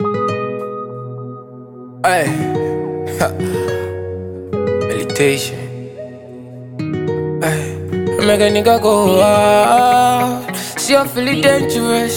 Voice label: Freestyle

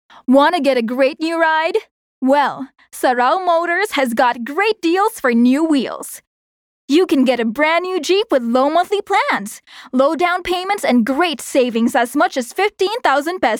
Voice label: English (Casual, Fun)

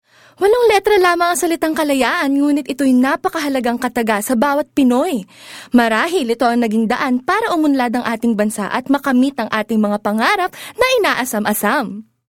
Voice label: Tagalog (Selling)

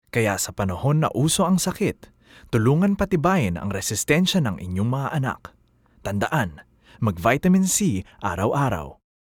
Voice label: Tagalog (Promo Commercial)

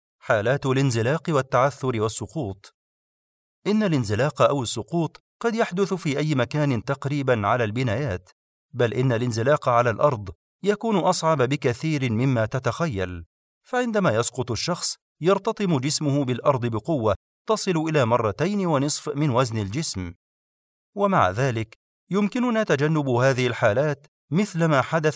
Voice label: arabic

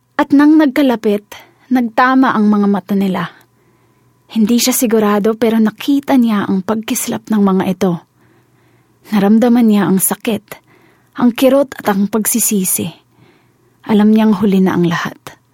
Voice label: Tagalog (Dramatic, Emotional)

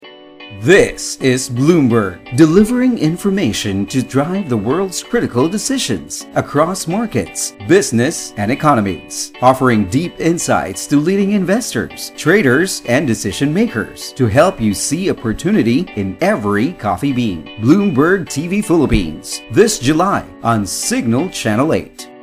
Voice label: vo sample with bed; bloomberg

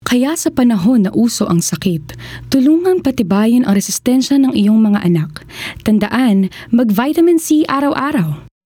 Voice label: Tagalog (Trustworthy, Concerned)

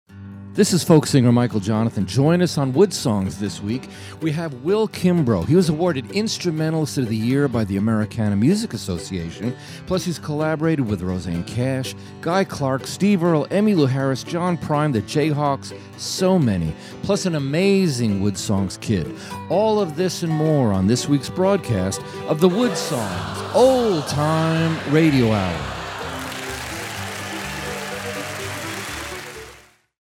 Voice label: thirty second promo

